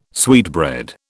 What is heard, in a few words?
"sweetbread", British